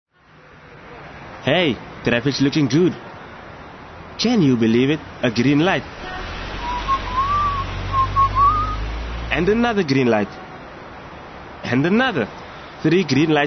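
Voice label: English Soft Sell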